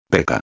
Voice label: "peca", espanhol

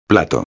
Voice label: "plato", espanhol

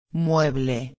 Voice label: "mueble", espanhol; palavra do dia

